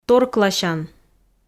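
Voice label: "торкласян", Komi, female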